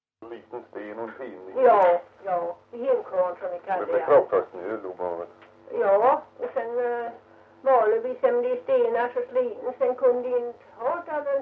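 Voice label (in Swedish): Ventlinge Öland, dialekter, svenska sagor; Folkminnen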